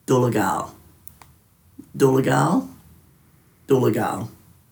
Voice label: "dulagaal", Dhurga